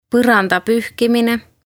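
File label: "Porandapuhkimine", Estonian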